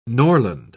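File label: "norland", British English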